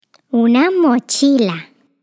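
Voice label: Spanish backpack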